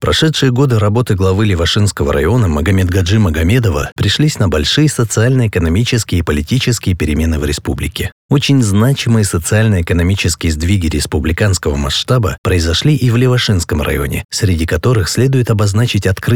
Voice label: russian political ads

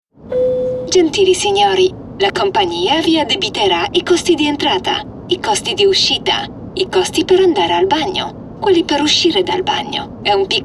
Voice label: italian commercial; Poland

